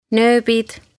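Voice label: "Nööbid" Estonian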